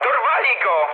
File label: aircraft destroyed mood med, speech pol; War Thunder